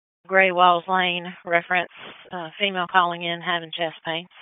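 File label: Dispatch, p25; WSO DISP 1; wake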